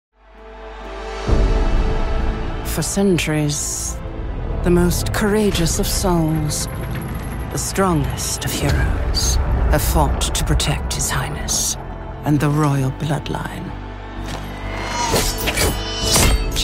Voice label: Narrated; Medieval Times